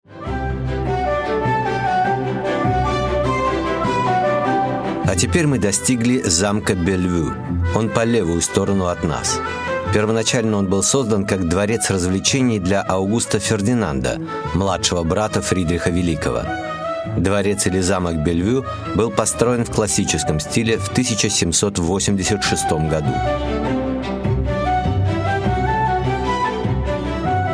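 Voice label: Russian, male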